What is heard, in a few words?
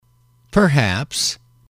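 "perhaps", English, male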